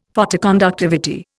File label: "Photoconductivity", Indian; Dictionary